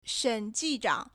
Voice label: "shen3ji4zhang3", Chinese